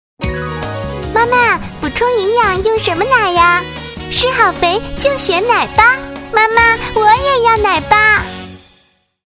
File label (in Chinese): 女 广告 女童, 国语女声配音; 奶吧